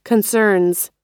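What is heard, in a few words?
"concerns", US English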